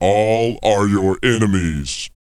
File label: pitch low; R&B & Dance; SCI-FI; ILIO; Vocal Planet